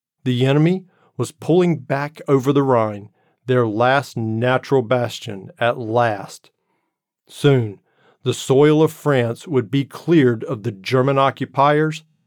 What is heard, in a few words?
1st, 3rd person male biography